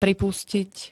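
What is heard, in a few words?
"pripustiť", Slovak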